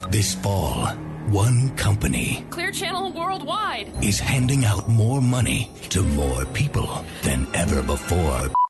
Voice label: Trailer